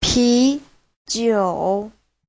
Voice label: "pi2jiu3", chinese; 啤酒; Giáo trình chuẩn HSK3